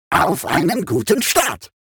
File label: robot First Kill; World Of Warships